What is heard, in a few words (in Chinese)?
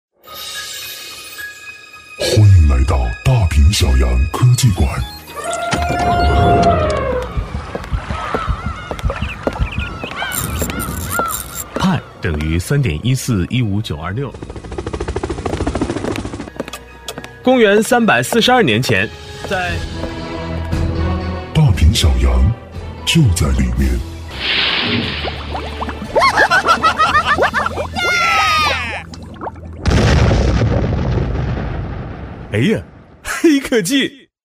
男, 角色; 哎呀黑科技 片花